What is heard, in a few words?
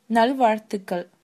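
good luck, Tamil, female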